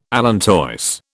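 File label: "allantois", British English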